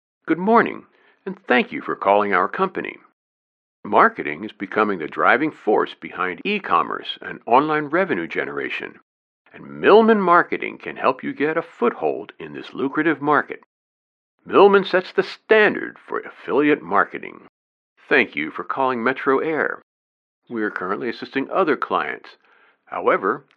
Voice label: Messages on Hold

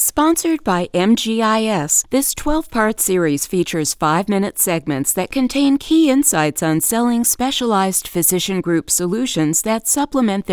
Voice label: Podcast; High Percentage Selling

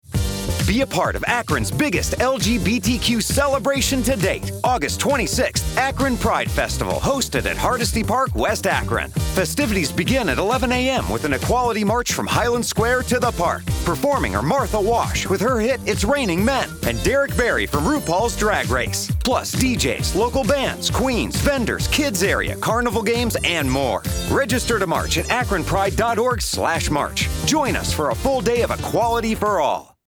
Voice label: AUDIOAD; Pandora; Canapi

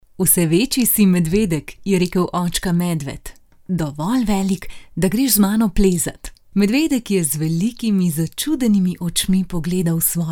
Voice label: voice audiobook

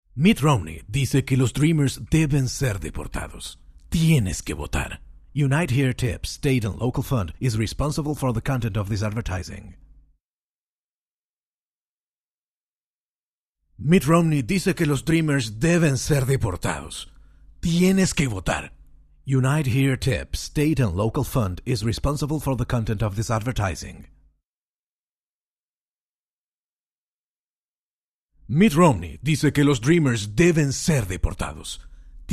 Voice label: Political Spanish

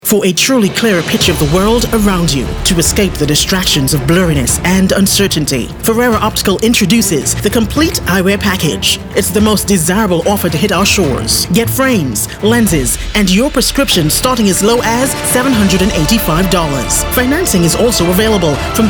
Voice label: Radio; Fereira optical

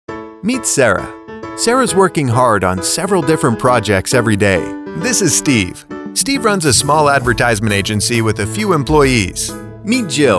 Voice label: Explainers

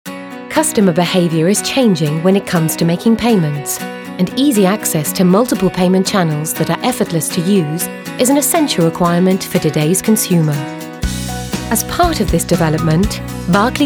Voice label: Corporate

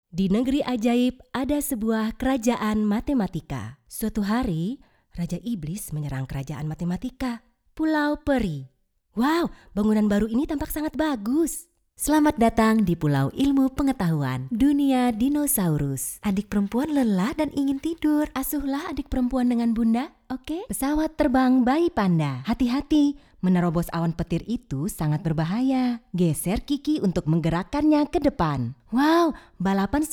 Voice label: Female Adult

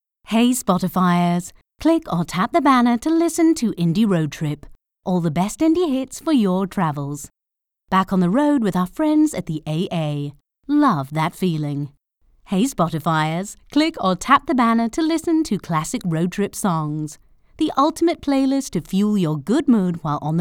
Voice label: Southern English

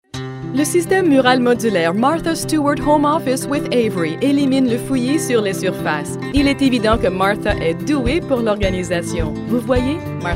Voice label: French

